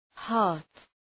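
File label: "heart", English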